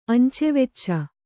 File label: "ಅಂಚೆವೆಚ್ಚ", Kannada